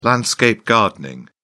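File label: "landscape gardening", British English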